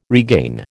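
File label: "regain", American English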